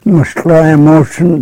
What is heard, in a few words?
klallam language